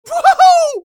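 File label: scout taunt flip fun, male voice; taunts; tf2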